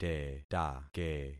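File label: "tetake", concatenated syllables; Affixing experiment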